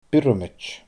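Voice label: "biorramaid", Scottish Gaelic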